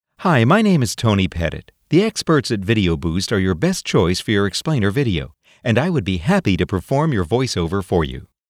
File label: American English, voiceover; internationale voiceover